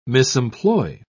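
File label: "misemploy", British English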